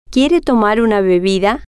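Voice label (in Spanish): quiere Tomar Bebida, spanish, female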